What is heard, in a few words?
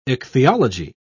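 "ichthyology", British English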